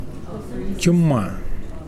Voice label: "chicken", Mokilese

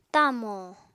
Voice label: "tamò", Apatani

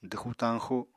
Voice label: "dwxu-tañxu", Apatani

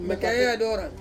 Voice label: "mekae adorang", Ansus; deep blue

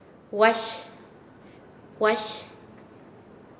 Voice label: Nasa Yuwe; tiesa; paez

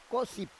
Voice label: "kosip", Roon

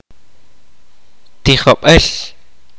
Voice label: kaqchikel; School

